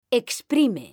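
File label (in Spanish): "exprime", Spanish; mekanta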